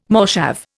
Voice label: "moshav", Indian